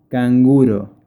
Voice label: spanish